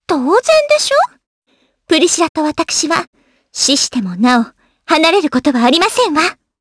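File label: voice, Japanese; Aisha; kingsraid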